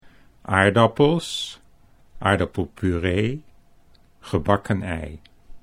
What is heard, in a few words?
"aardappels aardappelpuree", Dutch